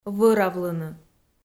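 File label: "вӧравлыны", Komi, female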